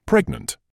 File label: "pregnant", English